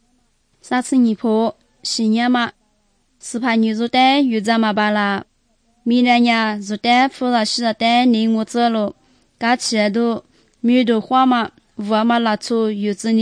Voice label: good news lisu southern, drama